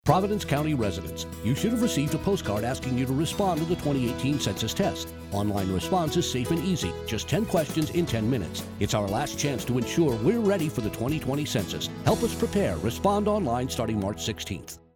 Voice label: providence response english; 2018 census test